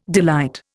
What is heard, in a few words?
"delight", Indian